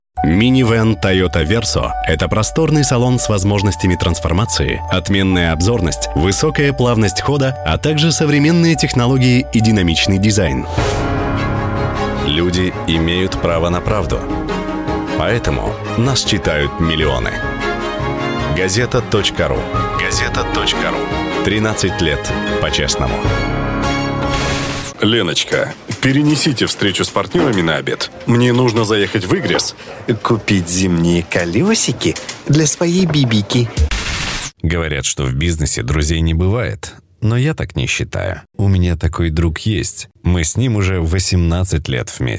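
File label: male, Russian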